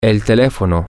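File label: the telephone, spanish, male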